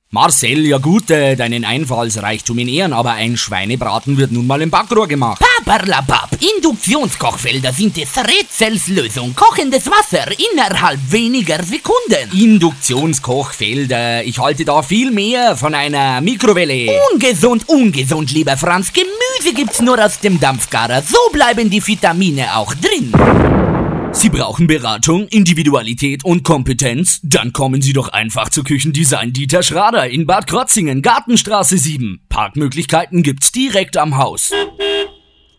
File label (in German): Radio Spot; Kuechendesign Dieter Schrader